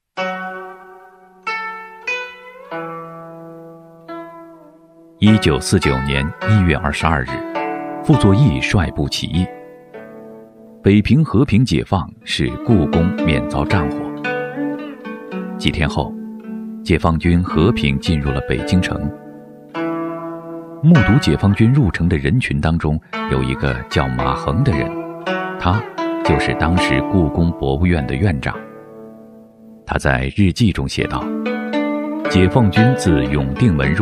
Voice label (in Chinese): 男 纪录片 陈述型; 故宫; 稳重底蕴 大气浑厚